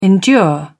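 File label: "endure", British English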